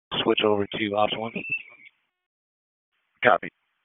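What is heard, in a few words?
Fire Department HQ Dispatch; RFD; p25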